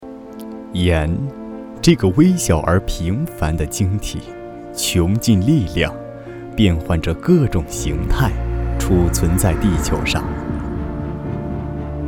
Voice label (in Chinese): 文化纪录片